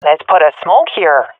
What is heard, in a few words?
request smoke, swat fem; vo; Counter Strike Model Pack; CS:GO